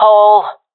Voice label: loc hole, professional fem; vo; agents; Source Model Packs; Counter Strike Model Pack; CS2

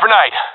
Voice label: throwing grenade, player vo professional epic; Counter Strike Model Pack; CS:GO

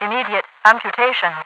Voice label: immediate amputation, radio voice; Half Life 2

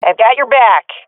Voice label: covering friend, swat fem; vo; Counter Strike Model Pack; CS:GO

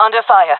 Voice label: taking fire, fem; player vo; Counter Strike Model Pack; CS:GO

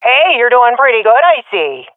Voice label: compliment, swat fem; vo; agents; Source Model Packs; Counter Strike Model Pack; CS2

